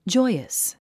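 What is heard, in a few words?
"joyous", English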